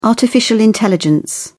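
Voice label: "artificial intelligence", English, British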